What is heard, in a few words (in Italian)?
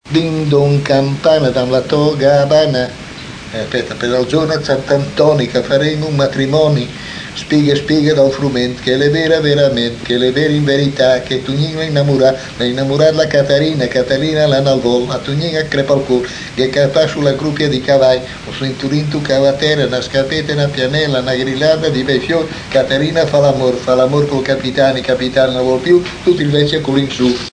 filastrocca; copparo; din don campana